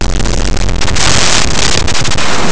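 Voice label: "debil", Spanish; laboratori fonetica; oclusives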